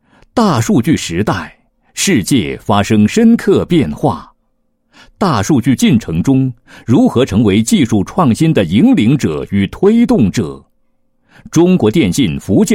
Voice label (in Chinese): 男21-视频解说; 高清视频分布式存储 产品VCR